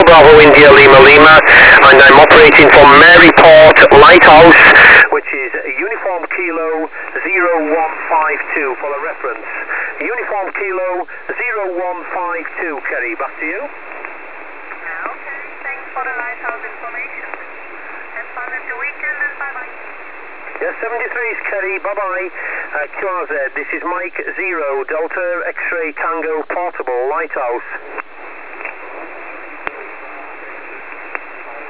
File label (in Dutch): zendamateur USB mobiel Engeland